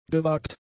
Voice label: "gewagt", German; adjectives